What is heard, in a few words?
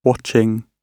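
"watching", British English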